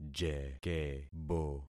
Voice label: "jekebo", concat syllables; Affixing experiment